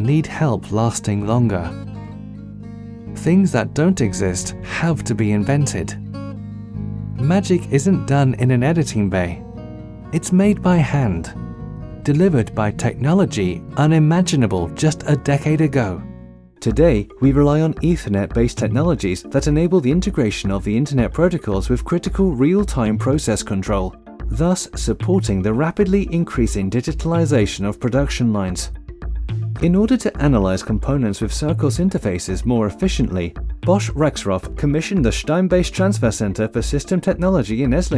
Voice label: Voice Over Narration